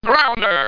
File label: "Grounder", Baseball; World Series